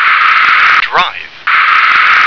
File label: "drive", English